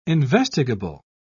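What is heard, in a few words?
"investigable", British English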